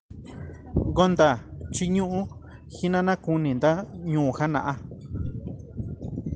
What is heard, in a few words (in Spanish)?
mixteco; Justicia